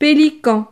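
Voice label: "pelican", French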